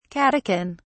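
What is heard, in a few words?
"catechin", British English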